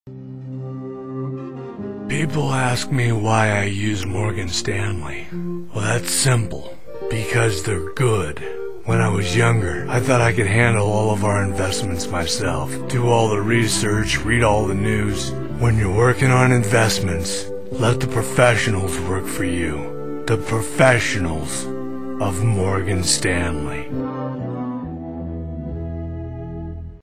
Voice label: audio commercial